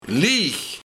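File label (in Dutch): "Lieg", Luik, Laag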